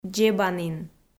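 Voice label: "дзебанін", Komi, female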